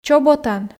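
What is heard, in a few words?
"чоботан", Komi, female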